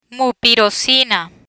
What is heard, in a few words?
"Mupirocina", Spanish; medical spanish dermatology medicines; learn spanish